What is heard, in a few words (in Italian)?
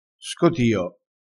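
"scotio", dialetto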